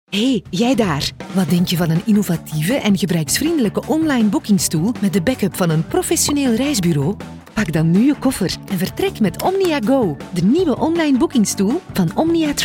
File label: corporate